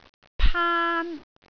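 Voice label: "paan1", Cantonese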